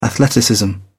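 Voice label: "athleticism", English, uk British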